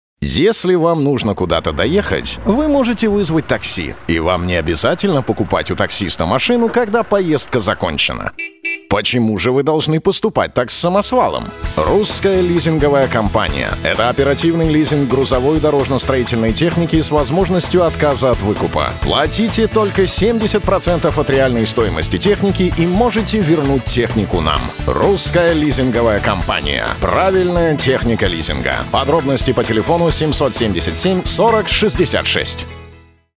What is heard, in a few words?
russian m commercial